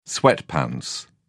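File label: "sweatpants", British English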